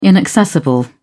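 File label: "inaccessible", British English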